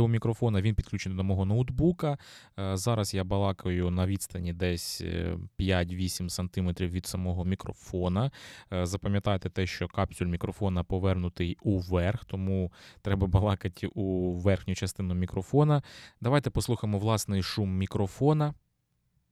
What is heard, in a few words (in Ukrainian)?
fifine am8 usb golos; FiFine AM8 – динамічний мікрофон з можливістю підключення по USB і XLR кабелю; USB Голос